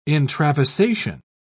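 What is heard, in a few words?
"intravasation", English, uk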